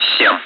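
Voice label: Speech, Russian, air traffic control; Digits; DCS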